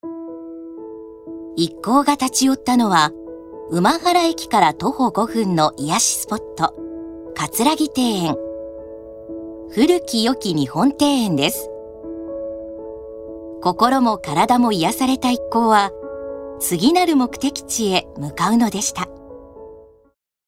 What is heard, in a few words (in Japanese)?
ノーマル